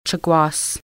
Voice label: "wife", Lushootseed